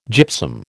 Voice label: "gypsum", American